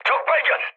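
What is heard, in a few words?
Korean enemy detected mood med, speech; War Thunder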